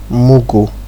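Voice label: "mugu", Nigerian Pidgin, male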